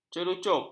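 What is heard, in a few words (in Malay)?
"cerucup", Malay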